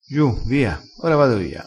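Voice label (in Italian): "giu", vocabolario dialettale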